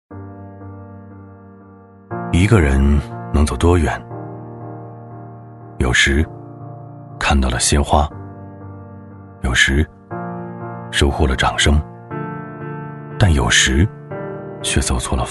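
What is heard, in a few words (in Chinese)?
国语 男声 专题片 大气浑厚磁性 沉稳; 越州府地产; 悠远、古风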